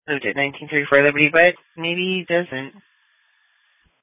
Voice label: Police Dispatch